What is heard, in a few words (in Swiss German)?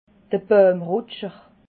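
"bauimrutscher", Alsatian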